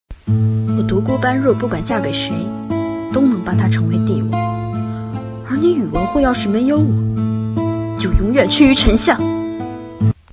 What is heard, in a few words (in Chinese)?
霸气; 般若